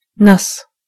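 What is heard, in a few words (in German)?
"wet", German; gcompris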